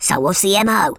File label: "yessir", speech